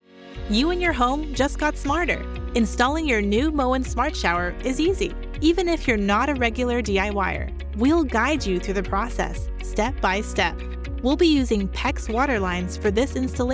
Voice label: eLearning Narration